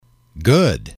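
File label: "good", English, male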